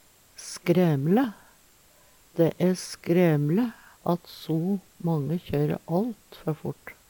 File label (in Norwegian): "skremle", Numedalsmål; Adverb